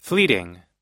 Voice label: "fleeting", US